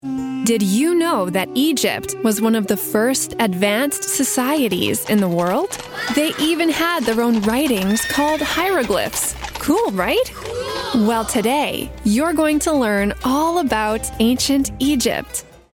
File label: K-12 eLearning Kids Learning About History; eLearning Voice Over